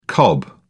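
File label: "cob", British English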